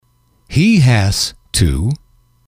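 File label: "he has to", English, male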